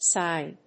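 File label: "sine", English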